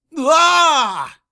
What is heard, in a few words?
Hurt Heavy; X Lines; X line pickups